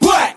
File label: what group of men; ooh ahh